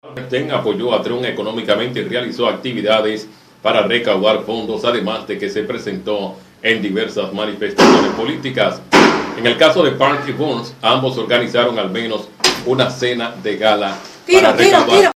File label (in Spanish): Locutor